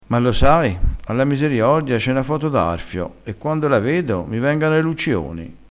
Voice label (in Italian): "miseriordia", crespinese